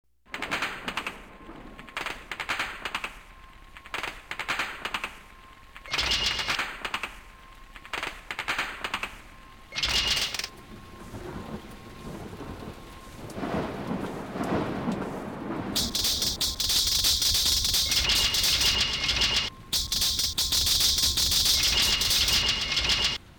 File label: Male Traintracks